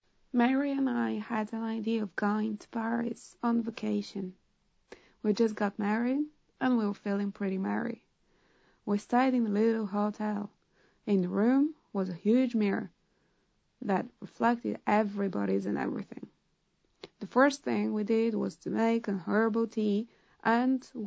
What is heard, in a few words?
speaking American English; voice